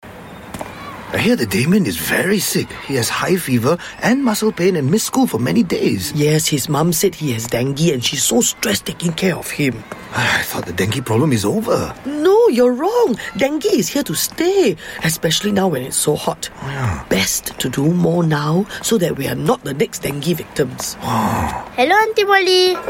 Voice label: Asian English TVC

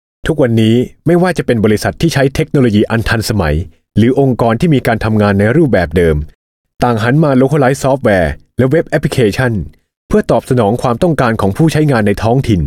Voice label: Thai Narration

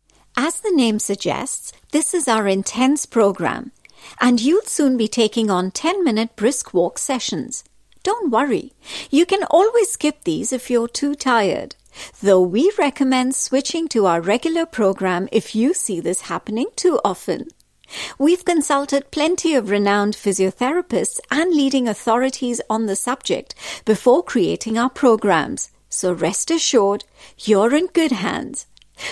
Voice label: Indian English, Female; Prototyze

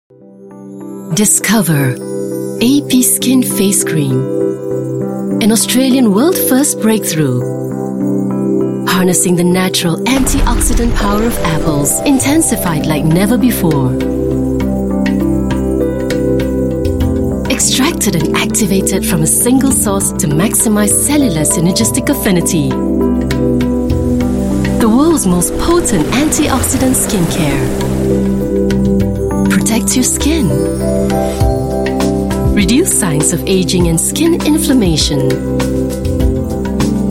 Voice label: Asian English Facecream

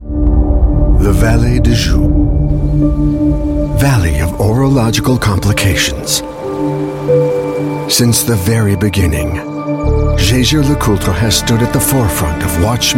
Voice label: US English; Jaeger Le Coultre Precision Maker

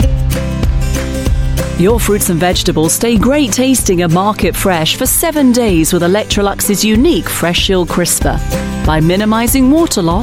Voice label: UK English, Female; Electrolux FreshShield Crisper

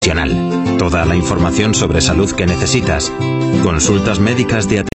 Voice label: Euro Spanish Male Commercial

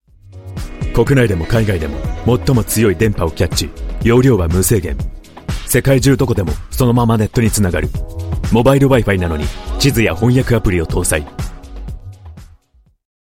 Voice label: Japanese Wi-Fi Commercial

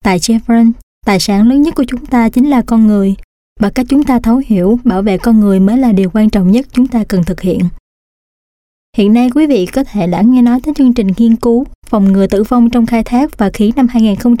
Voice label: Southern Vietnamese Corporate, Female

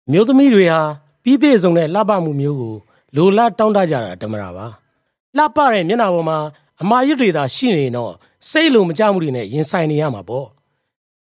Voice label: Burmese Voice Sample Male

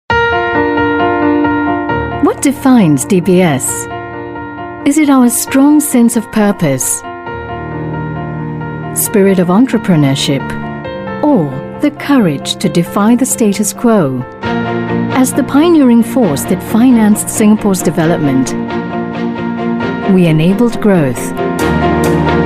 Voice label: Asian English Corporate; DBS 50th Anniversary

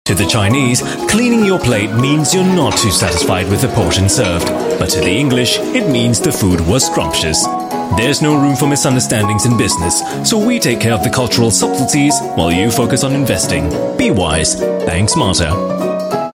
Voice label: Asian English wise

